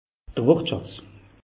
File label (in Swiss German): "wortschatz", alsace; meteo